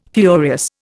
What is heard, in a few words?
"furious", Indian